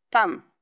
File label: VoiceActing es F